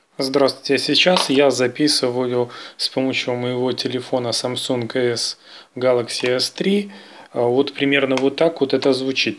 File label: mic phone